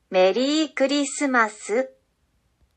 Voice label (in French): japonais; calendrier avent multilingue